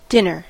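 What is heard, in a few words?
"dinner", English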